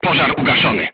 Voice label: voice message fire extinguished, polish